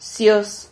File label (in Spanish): "sios", Silabas